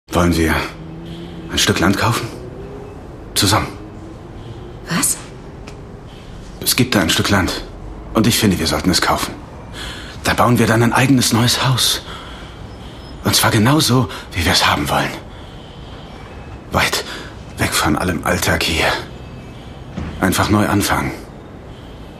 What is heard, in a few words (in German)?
Synchron, German; Ein Stück Land